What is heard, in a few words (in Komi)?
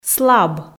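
"слаб", Komi, female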